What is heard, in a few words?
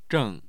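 "zheng4", Mandarin Chinese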